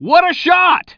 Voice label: commentator